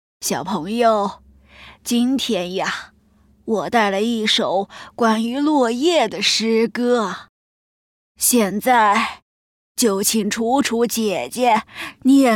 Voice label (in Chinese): 老人